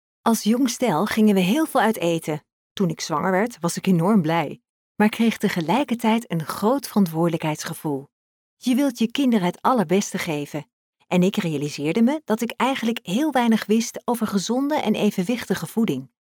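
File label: Dutch, female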